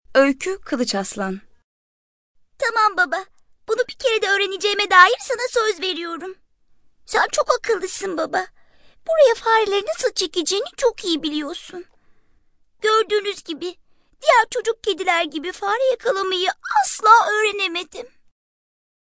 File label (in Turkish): animasyon